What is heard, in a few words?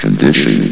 "condition", vox; Source Engine; bshift